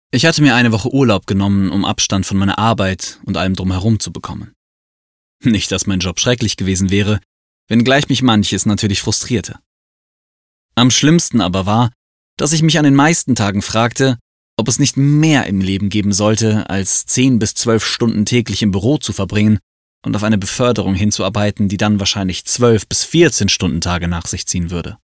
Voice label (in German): Sprachdemos Hörbuch